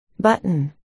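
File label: "button", spoken word, articulation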